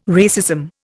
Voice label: "racism", Indian